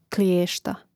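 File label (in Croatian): "klijesta", Hrvatski; Mrežnik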